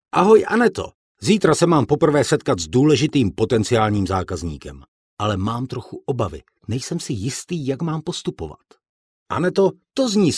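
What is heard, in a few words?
Czech, Character; GoLocalise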